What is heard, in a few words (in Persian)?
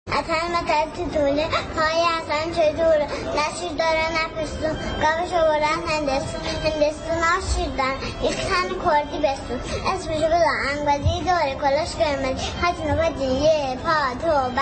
اتل متل توتوله بدون آهنگ